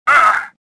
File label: pain; XBOX; worker